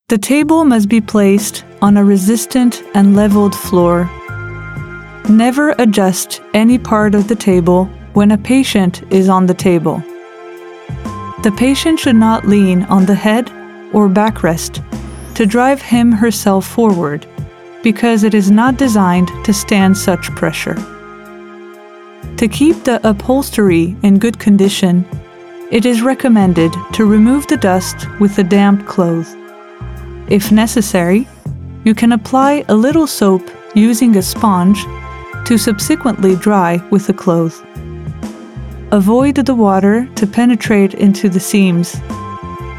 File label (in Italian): madrelingua inglese